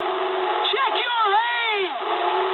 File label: eng player friendly fire mood high, speech eng; War Thunder